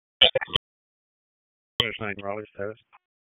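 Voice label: Raleigh Police Southeast District Dispatch, p25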